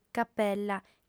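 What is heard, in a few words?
"capella", female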